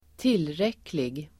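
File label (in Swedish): "tillräcklig", Swedish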